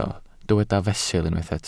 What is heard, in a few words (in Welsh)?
"fesul", Welsh